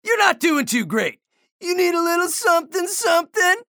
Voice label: chatter neutral; anarki